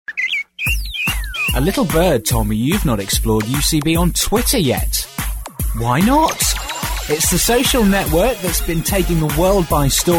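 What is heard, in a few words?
British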